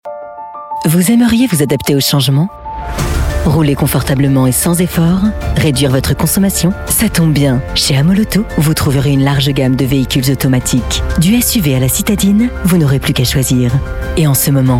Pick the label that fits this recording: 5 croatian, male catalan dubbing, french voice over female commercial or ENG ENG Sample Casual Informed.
french voice over female commercial